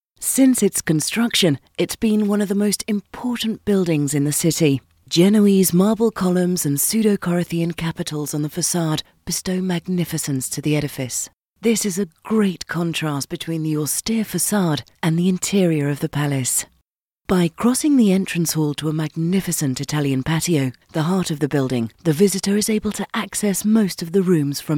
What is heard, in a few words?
locutora inglesa english voiceover